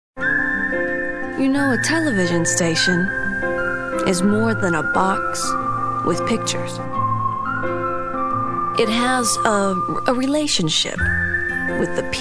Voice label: promo